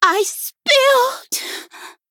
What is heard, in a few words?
Female Cup Death